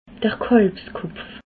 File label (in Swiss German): "kalbskopf", Alsace